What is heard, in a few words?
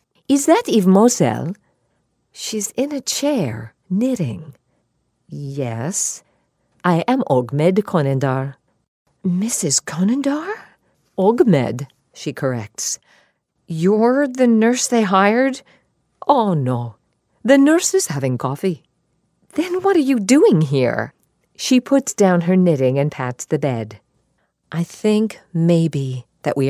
us audiobook